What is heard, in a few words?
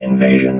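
"invasion", robot voice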